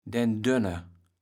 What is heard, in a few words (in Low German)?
"den Dönne", kaevels platt